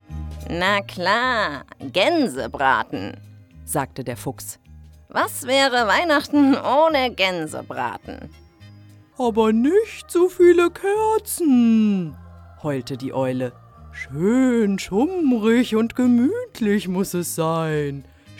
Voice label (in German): Hoerbuch Tierimitationen